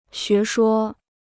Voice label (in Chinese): "学说", Chinese